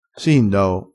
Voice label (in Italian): "sindao", crespinese